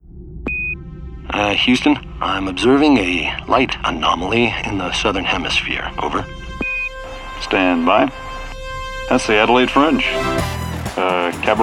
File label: Radio Commercial